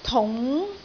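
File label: "tung2", Cantonese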